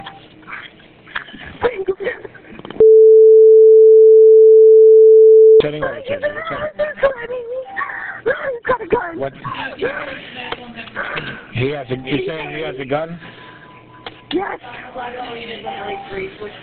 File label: 911 call